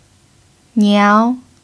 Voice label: "niao2", Mandarin pinyin

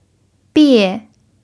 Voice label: "bie4", pinyin

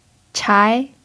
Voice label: "chai2", Mandarin pinyin

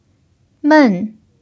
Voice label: "men4", Mandarin pinyin

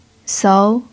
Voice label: "sou2", pinyin

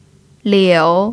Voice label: "liu3", Mandarin pinyin

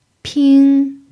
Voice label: "ping1", Mandarin pinyin

